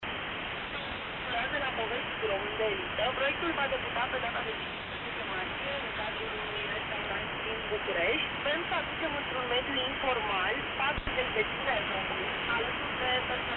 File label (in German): Kurzwelle 16m 17780khz; Radio Romania